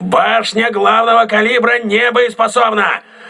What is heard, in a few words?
main caliber turret damaged, crew ships russian